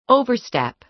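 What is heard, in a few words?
"overstep", American English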